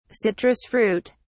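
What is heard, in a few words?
"citrus fruit", British English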